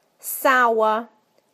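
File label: "sour", British English; PictureDictionary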